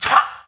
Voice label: german; SpecOps2 MPlayer Demo